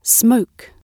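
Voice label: "smoke", British English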